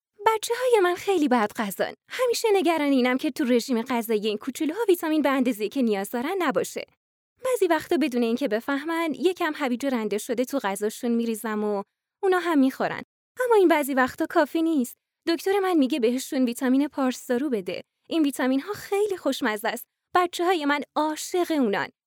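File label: Farsi, female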